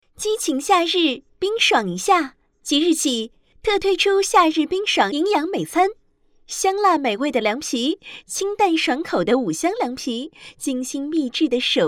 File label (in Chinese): 促销